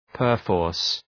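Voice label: "perforce", English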